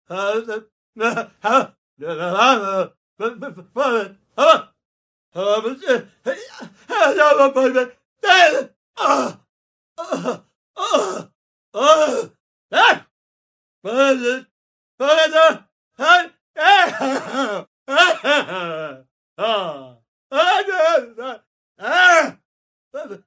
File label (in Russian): nevnjatnoe bromotanie; Звуки сумасшедшего; звуки, которые издают психи в фильмах страшилках